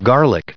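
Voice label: "garlic", US English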